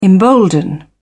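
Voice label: "embolden", British English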